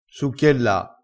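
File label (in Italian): "succhiella", vocabolario dialettale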